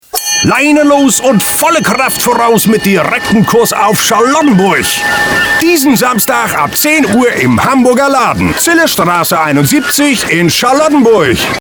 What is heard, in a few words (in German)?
werbung; kapitaen